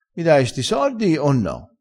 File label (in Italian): "sti", crespinese